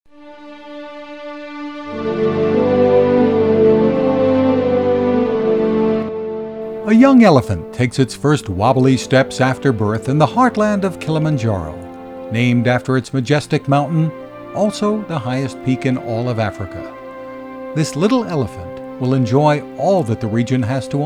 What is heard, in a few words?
Documentary